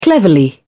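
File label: "cleverly", British English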